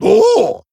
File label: Male Hozen Hero Power